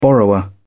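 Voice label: "borrower", British English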